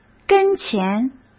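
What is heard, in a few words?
"跟前", Mandarin Chinese; hsk; chinese-flashcards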